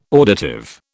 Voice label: "auditive", British